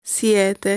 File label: "Siete", Italian